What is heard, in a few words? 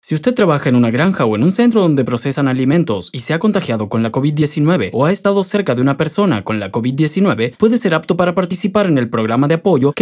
Radio PSA Spanish